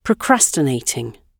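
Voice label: "procrastinating", British English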